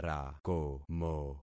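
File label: "rakomo", concatenated syllables; Affixing experiment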